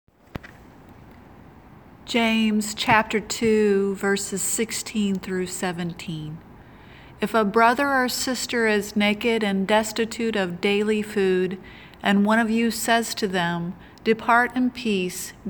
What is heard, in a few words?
Childrens Bible Verse; James 2 16 17